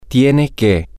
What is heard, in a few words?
you have to, spanish, M